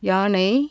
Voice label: "elephant", Tamil consonants; JIPA

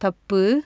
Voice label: mistake, tamil; JIPA

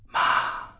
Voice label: tone whisper, mandarin tones

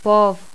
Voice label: labiodental fricative, toda

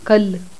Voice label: voiced dental lateral, toda

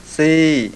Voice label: high falling modal, mpi

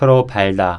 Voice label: lax sound, korean

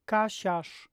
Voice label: voiceless palatalized postalveolar medial, polish

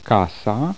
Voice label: voiceless alveolar medial, polish

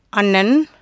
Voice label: elder brother, tamil consonants; JIPA

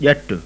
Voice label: implosive palatal, sindhi

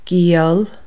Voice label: hostage, Irish, Consonants

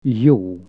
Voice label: good, hungarian Consonants

